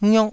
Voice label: "ngiok1", taiwanese syllables